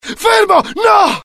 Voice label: fear, IT; mohaa; dialogue